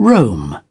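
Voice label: "Roam", British English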